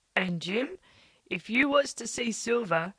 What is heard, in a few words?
LPC Burg Resynthesis; VowelBender; Parallel Audiobook Corpus Treasure